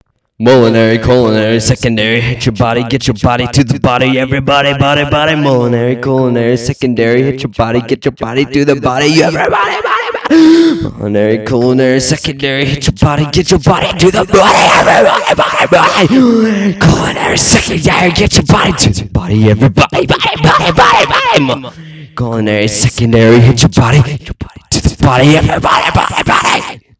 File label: Fast Rhyme